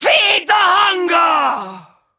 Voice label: Female Taunt, Cannibal, dialogue